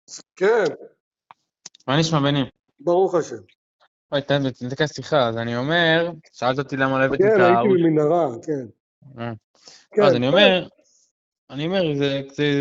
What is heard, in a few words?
Call recording